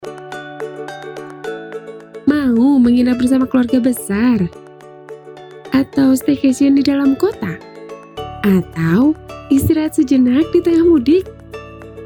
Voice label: INDONESIA CASUAL